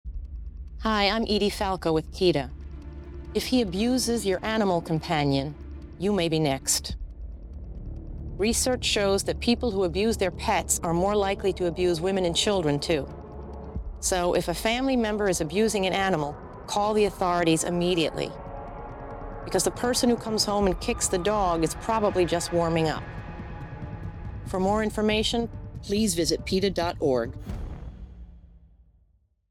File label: violence link radio spot; edie falco; peta; violence toward animals domestic violence